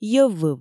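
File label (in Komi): йӧв выв, Komi, female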